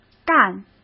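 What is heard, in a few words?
"干", Mandarin Chinese; chinese-flashcards; hsk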